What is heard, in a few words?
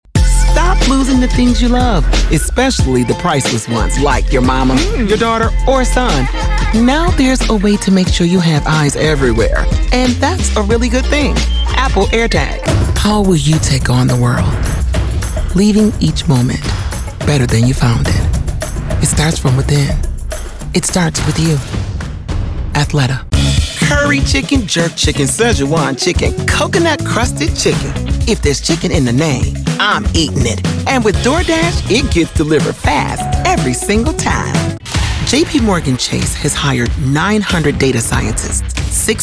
Commercial